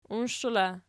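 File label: "uinshela" nominative, Archi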